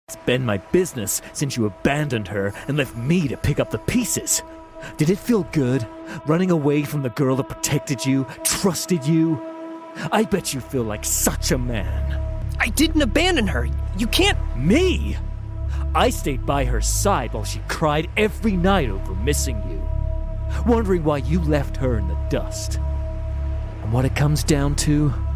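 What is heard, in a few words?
English UK Male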